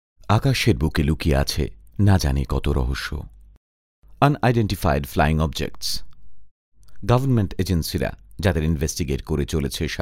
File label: Narration Sample